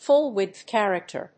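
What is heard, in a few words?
"full-width character", English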